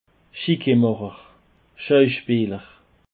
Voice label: "chiquemacher", Alsace